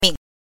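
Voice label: "ming", Mandarin Chinese pinyin, neutral tone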